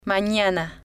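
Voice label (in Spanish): "manana", Spanish, female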